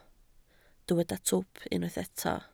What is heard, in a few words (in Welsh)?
"twp", Welsh